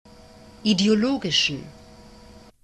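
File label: "ideologischen", German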